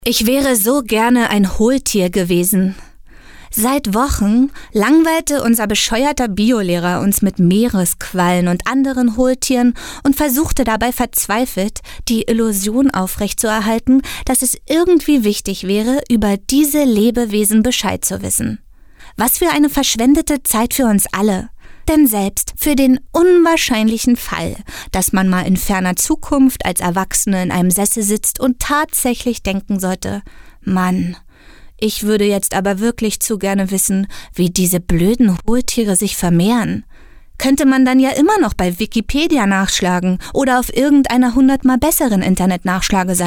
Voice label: hoerbuch roman; fee hohltiere; casting stimmprobe

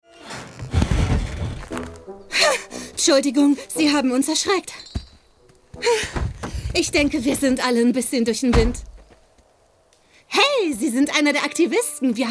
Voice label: animation mutter eifrig bestimmt; bigfoot junior; casting stimmprobe

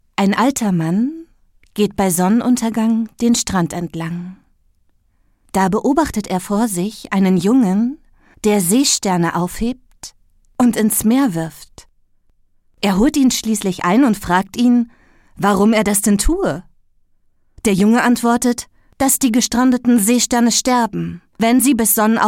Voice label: hoerbuch erzaehlend; geschichte vom seestern; casting stimmprobe

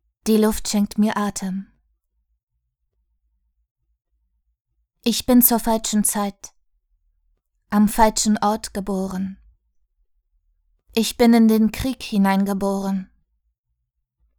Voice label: stimme eines kriegskindes hoerlyrik; die luft schenkt mir atem

